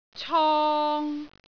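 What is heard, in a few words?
"cong3", Cantonese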